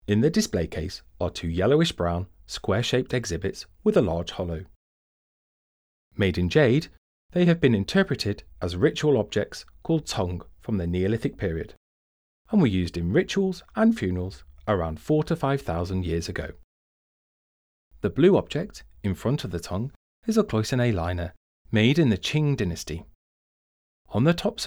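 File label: English, audio guide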